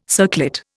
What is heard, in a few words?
"circlet", Indian